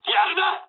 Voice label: jp trick, speech jp; War Thunder